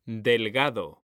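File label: "DELGADO", Spanish; mekanta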